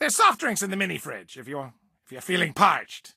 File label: English Voice